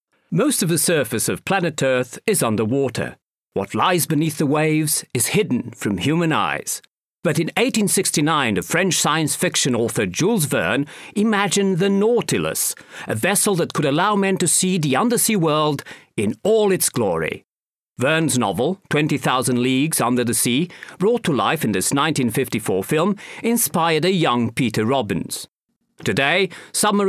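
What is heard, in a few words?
English Portuguese, male; accent of portugal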